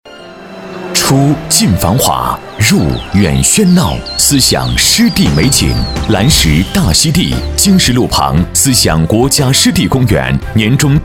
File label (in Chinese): 男 广告 大气 地产; 蓝石地产